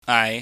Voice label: "ngai", Chinese